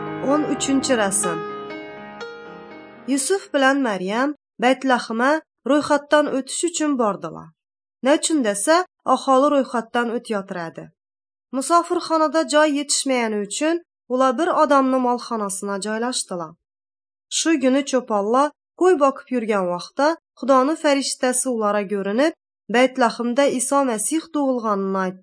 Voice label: uzbek northern kipchak; good news